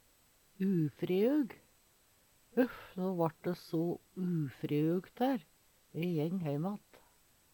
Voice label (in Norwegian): "ufreug", Numedalsmål; Huff no vart de so ufreugt hær, e jeng heim att.; Adjektiv